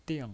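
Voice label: "ding", Mandarin Chinese, fourth tone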